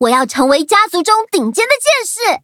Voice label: upgrade, zh-hant; Cookie Run